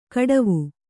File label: "ಖಟ್ವ", kannada